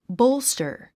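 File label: "bolster", English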